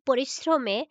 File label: Female